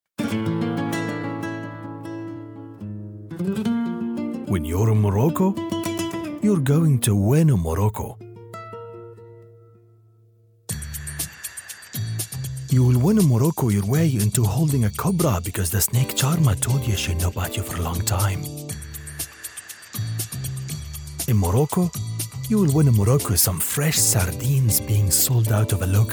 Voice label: English Arabic Accented Commercial

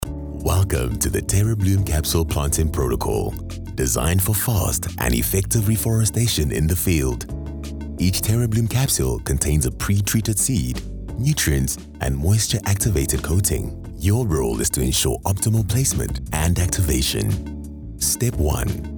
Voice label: Instructional Voice Over

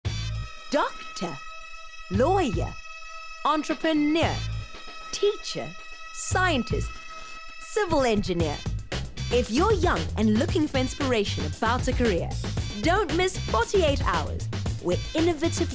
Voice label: Voice Over Hardsell; 48 Hours; SABC 2

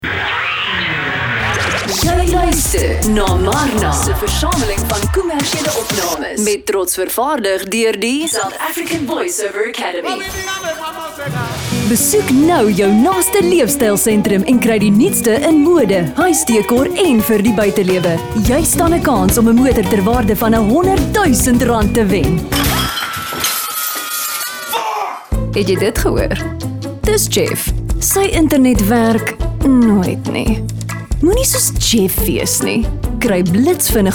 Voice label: AFR Commercial

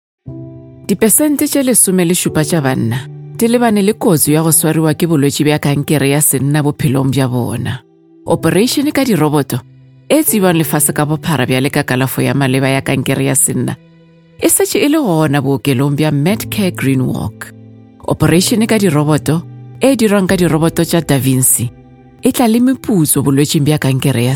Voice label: Sepedi Conversational Care

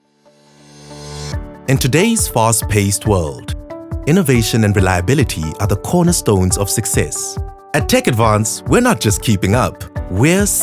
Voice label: Corporate; South Africa

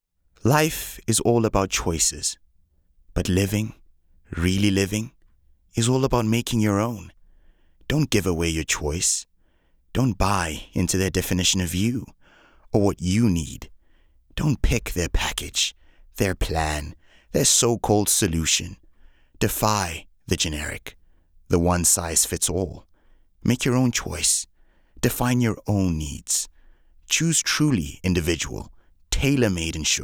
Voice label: VO, Insure

